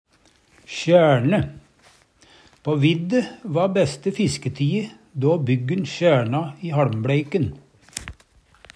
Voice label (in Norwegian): sjæRne, Numedalsmål; Verb; På Vidde va beste fisketie då byggen sjæRna i haLmbLeiken.